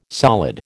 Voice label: "solid", American